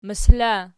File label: "mosol", Archi